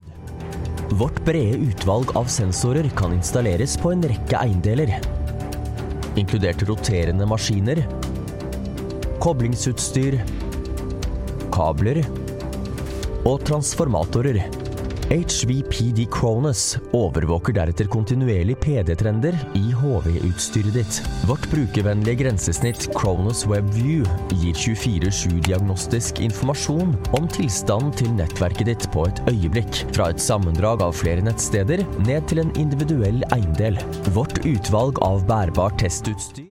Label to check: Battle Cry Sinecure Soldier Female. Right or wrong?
Wrong